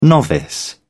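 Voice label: "novice", British English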